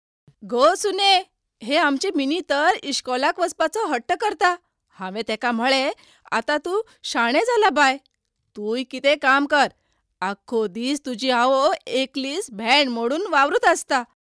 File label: KONKANI F